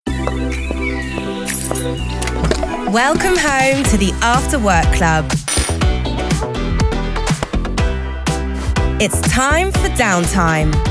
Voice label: UK; Lenovo